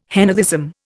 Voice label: "henotheism", Indian